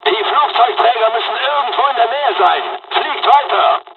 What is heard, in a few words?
dialog, dialogs german; War Thunder; midway